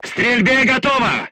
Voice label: voice message charged, crew tanks russian